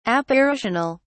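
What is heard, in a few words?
"apparitional", British English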